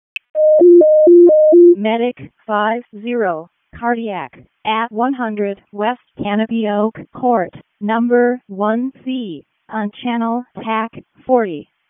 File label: EMS ALERT, p25; wake